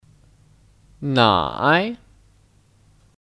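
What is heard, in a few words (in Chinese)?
"奶" Chinese; Nǎi; Kata benda; Susu; 我喜欢喝牛奶 Wǒ xǐhuan hē niúnǎi (Saya suka minum susu sapi)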